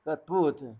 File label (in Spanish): "tostada", Zapoteco, Magdalena Ocotlan; Alimento; INALI; Haspelmath